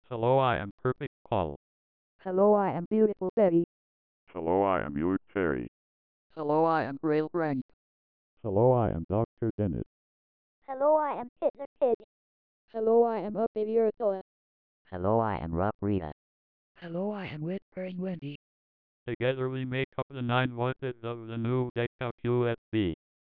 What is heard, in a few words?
DECtalk 4.63 (No HLSyn); DECtalk USB Demo Recreated